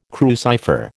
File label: "crucifer", American